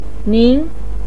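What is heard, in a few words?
"nin", Mandarin pinyin, second tone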